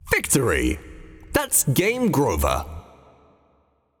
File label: Announcer Vox Match End Victory